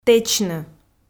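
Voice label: "тэчны", Komi, female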